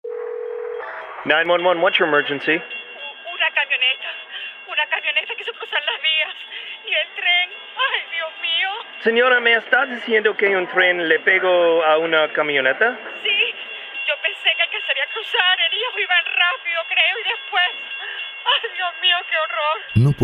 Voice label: 911 call spanish; 911 call rev spanish